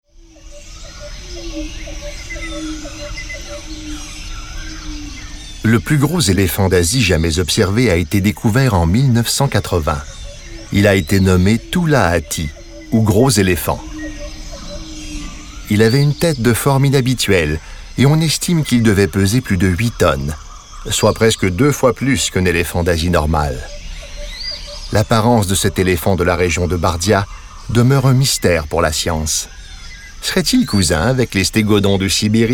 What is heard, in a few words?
Narration; Elephant